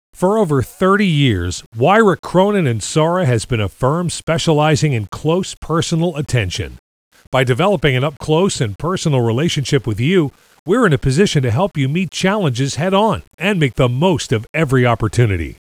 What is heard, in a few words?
Voice EQ Comp Limiter